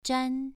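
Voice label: "zhan", Mandarin Chinese, second tone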